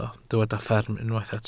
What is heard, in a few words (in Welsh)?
"fferm" Welsh